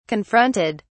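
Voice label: "confronted", British English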